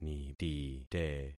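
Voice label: "nitite", concatenated syllables; Affixing experiment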